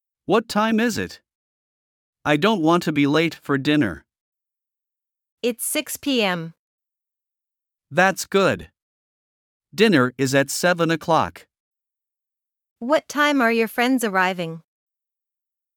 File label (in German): Dialog; Die Uhrzeit